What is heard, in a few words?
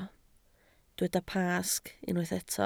"Pasg", Welsh